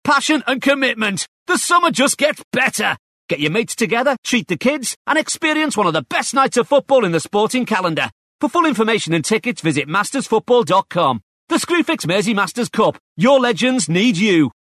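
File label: English Liverpool male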